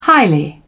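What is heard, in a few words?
"highly", British English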